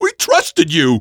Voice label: we trusted you, male; Half-Life 2